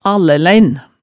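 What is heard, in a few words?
"allælæin", Hemsedal dialect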